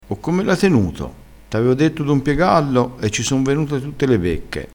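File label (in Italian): "becca", vocabolario dialettale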